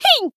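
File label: damaged, Japanese; ctos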